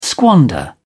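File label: "squander", English, British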